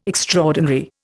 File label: "extraordinary", Indian